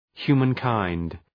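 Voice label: "humankind", English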